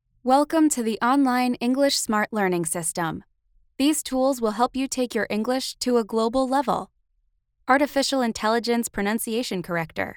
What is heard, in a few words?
eLearning, female voices